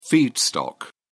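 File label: "feedstock", British English, dictionary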